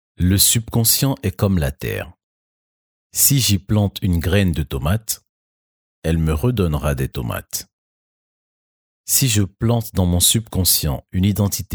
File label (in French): voix meditation